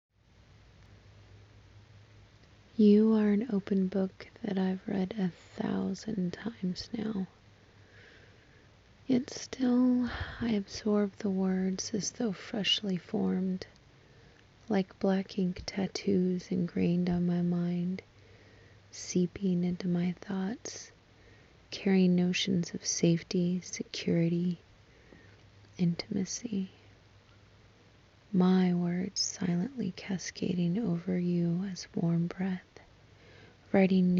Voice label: Erotic Poetry Intimate Passionate; A4A; Open Book